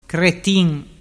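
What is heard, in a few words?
"cretin", Vernazzese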